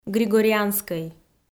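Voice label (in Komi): "григорианскӧй", Komi, female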